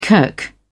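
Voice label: "kirk", British English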